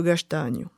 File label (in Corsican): "u castagnu", Moita Verde (nord)